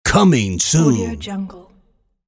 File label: announcer coming soon; applehillstudios